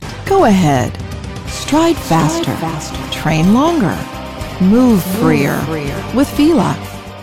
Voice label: Commercial; Fila